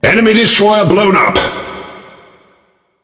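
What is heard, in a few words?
Speech Destroy Enemy Destroyer, British English; World Of Warships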